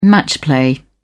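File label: "match play", British English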